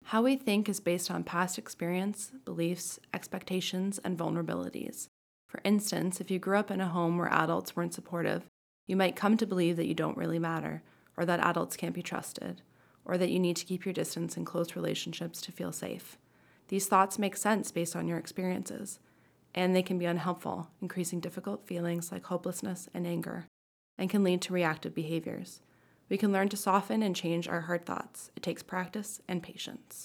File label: Narration; How We Think